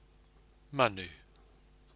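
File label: "manu", Maori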